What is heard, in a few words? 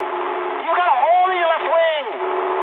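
English left wing mood high; speech; War Thunder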